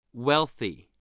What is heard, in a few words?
"wealthy", spelling